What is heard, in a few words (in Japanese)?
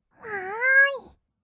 "わーい", kawaii voice; 1200 kawaii voice!!; 色々なワンショット